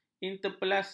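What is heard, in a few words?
"interpelasi", Malay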